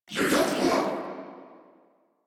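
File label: Reload, English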